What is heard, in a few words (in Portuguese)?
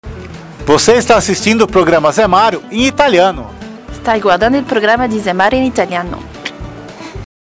vinheta italiano